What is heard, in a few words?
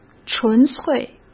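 "纯粹", Mandarin Chinese; chinese-flashcards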